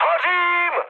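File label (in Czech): Czech on fire, mood medium; War Thunder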